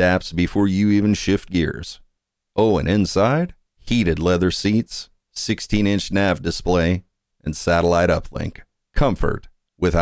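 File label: Truck Commercial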